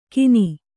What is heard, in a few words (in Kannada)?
"ಕಿನಿ", Kannada